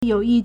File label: "you3 yi4", Chinese